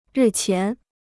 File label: "日前", Chinese